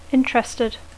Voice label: "interested", English

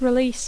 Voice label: "release", English

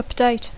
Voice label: "update", English

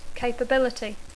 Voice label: "capability", English

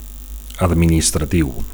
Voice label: "administratiu", Catalan

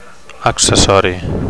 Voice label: "accessori", Catalan; accessory

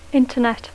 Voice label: "Internet", English

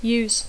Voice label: "use", English

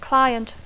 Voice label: "client", English

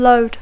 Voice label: "load", English

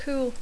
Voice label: "cool", English

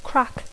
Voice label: "crack", English

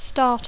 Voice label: "start", English

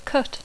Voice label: "cut", English; verb tr conj (cut/cut/cutting); tallar; retallar

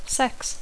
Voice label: "sex", English

